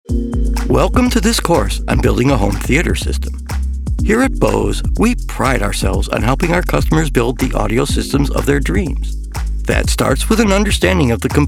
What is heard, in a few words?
eLearning